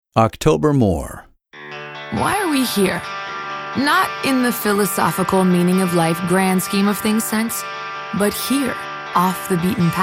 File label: women, commercial